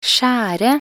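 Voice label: "skjære", Norwegian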